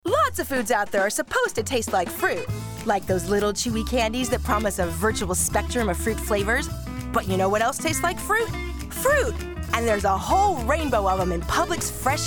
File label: Commercial VO